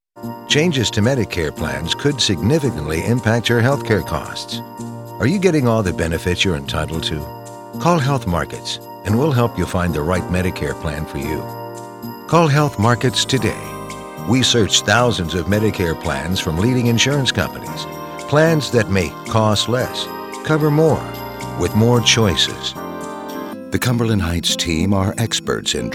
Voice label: Warm Caring Inviting

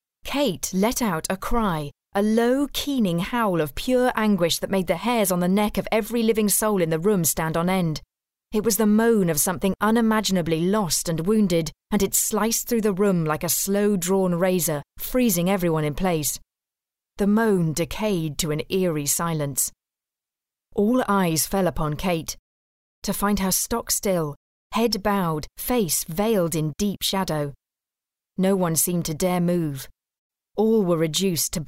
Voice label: Audiobook Modern Fiction

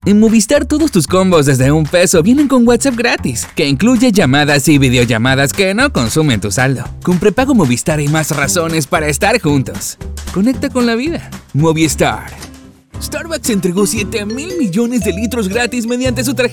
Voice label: Latin Neutral Spanish Commercial

